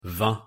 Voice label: "vingt", fr

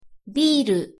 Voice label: "ビール", ja

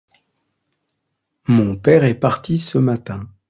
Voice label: "mon pere est parti ce matin", fr

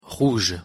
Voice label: pronunciation fr "rouge"

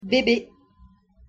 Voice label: "bebe", fr

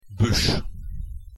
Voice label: "buche", fr

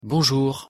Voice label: "bonjour", fr

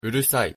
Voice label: "urusai", ja

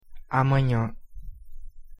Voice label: pronunciation pt "amanhã"

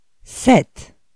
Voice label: numbers in French 7

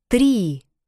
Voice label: numbers in Russian 3

